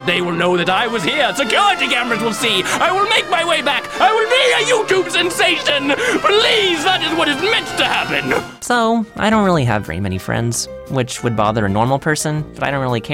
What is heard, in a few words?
animation men, voices men